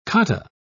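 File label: "cotta", British English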